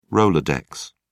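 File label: "rolodex", English, British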